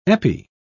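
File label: "epi", English, British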